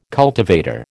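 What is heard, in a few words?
"cultivator", American English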